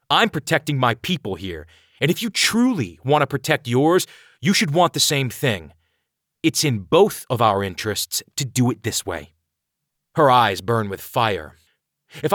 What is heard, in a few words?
Character Dialogue